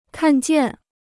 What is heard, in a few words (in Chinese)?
"看见", Chinese